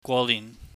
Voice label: "gwonihn", Cantonese